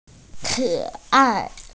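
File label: "cute", Mandarin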